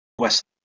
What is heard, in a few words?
"wes", Proto-Germanic